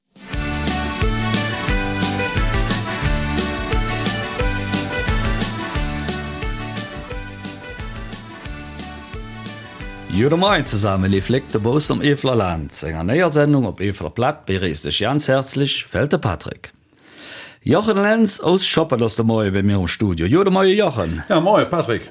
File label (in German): Eifeler Mundart; Ein Buch über Schoppen